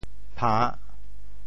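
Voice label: "pha~3", Teochew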